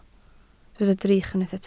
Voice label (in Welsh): "drych", Welsh